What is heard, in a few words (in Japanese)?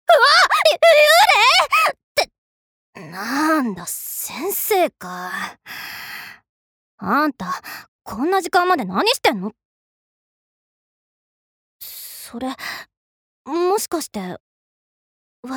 アニメ